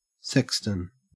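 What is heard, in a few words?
"sexton", Australian English; pronunciations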